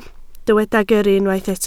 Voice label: "gyrru", Welsh

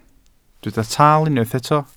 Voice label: "tal", welsh

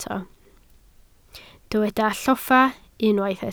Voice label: "lloffa", Welsh

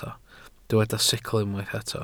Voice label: "sicl", welsh

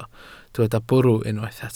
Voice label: "bwrw", Welsh

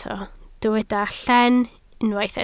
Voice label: "llen", Welsh

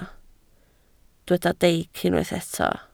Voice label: "deic", Welsh

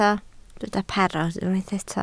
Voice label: "parod", Welsh

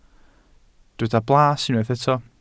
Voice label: "blas", welsh

